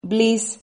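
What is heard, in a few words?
"blis", Spanish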